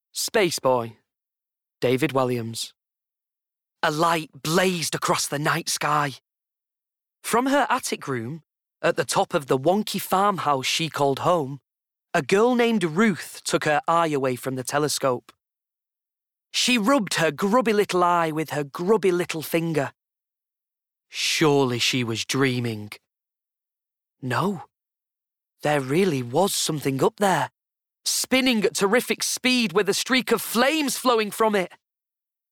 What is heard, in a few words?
Bookread